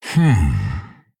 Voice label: Male Tauren Thinking